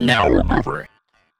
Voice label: VOICEFX TEKNO 140, Techno, Voice